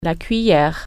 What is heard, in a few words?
"spoon", French